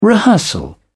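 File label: "rehearsal", British English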